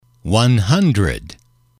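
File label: "one hundred", English, male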